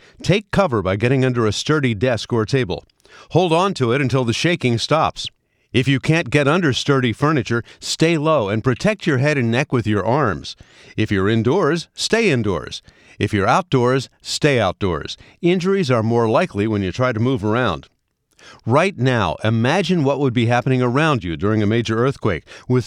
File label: Drill Broadcast English No Sound Effects; ShakeOut